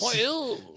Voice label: voice, asian voices